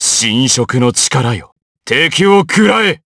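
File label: Vox Skill, Japanese; DarkKasel; kingsraid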